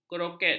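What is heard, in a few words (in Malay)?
"keroket", Malay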